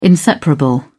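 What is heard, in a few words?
"inseparable", British English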